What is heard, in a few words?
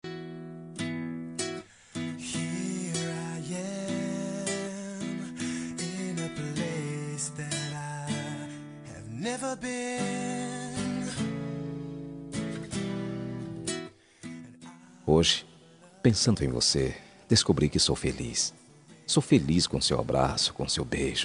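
male; ano no romati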